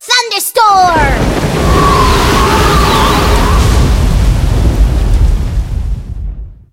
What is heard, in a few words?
skill thunderstorm, en